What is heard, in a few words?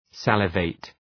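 "salivate", English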